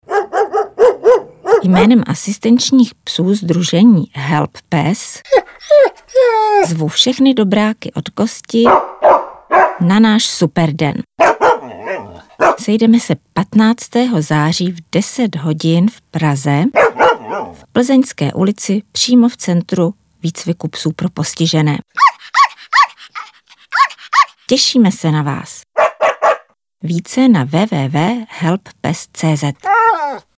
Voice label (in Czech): radio pozvanka